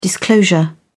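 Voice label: "disclosure", English, British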